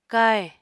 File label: "káhè", Apatani